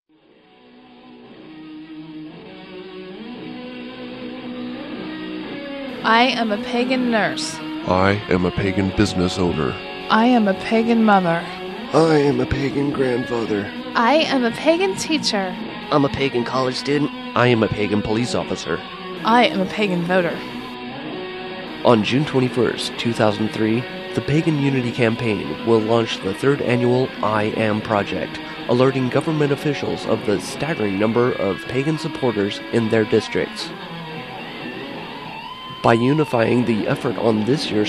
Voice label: psa rock w male vox